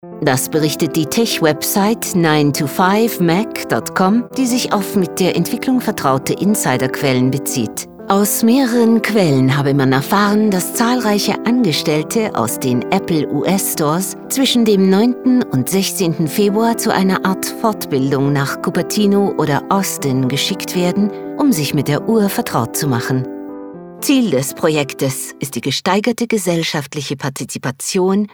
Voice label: Narration technical German

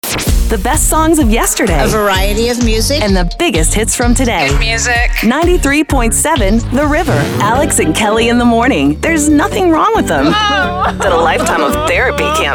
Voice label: Imaging

